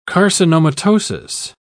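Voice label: "carcinomatosis", British English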